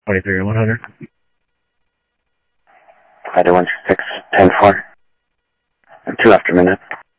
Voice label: Sheriff, scanner audio